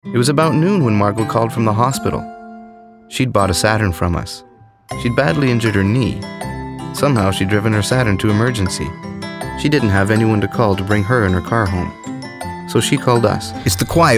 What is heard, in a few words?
commercial male